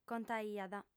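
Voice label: "contaiat", female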